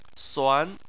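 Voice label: "suan", Chinese, second tone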